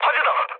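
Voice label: Korean on fire mood low; War Thunder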